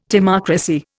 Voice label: "timocracy", Indian

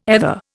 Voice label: "ether", Indian

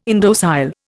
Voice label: "indocile", Indian

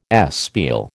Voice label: "espial", American

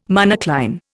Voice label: "monocline", Indian

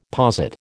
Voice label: "posit", American

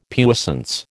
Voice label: "puissance", American

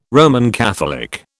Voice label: "Roman Catholic", British

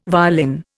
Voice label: "violin", Indian

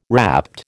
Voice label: "rapt", American

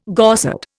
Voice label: "Gorsedd", Indian

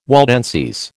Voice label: "Waldenses", American

